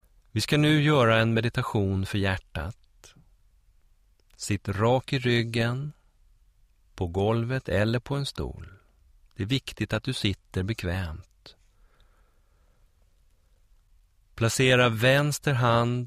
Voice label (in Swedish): Intro Till Hjartmeditation